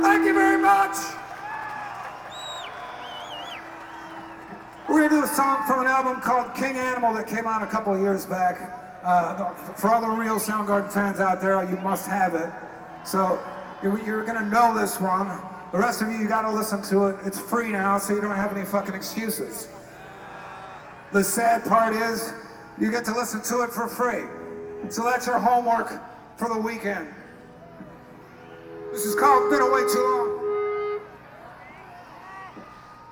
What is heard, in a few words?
Chatting; Welcome To Rockville; Jacksonville, FL